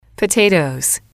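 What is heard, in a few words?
"potatoes", English, female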